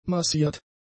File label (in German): "massiert", German; adjectives